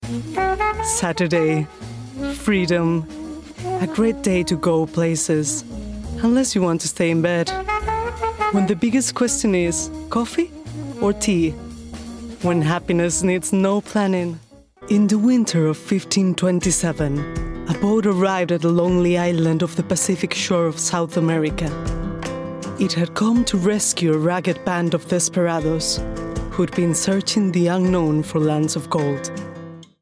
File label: English